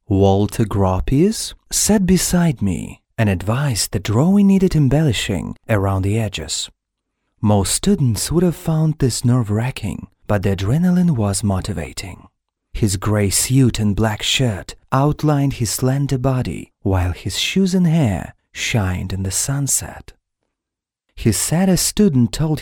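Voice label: audio book dry English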